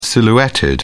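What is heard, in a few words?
"silhouetted", British English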